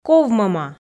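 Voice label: "ковмӧма", Komi, female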